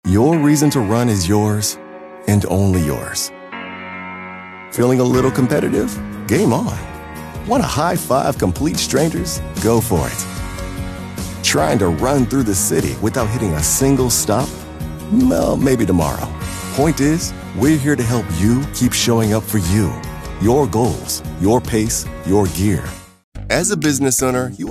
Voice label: Narration; TaDa